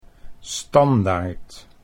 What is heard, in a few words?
"standaard", Dutch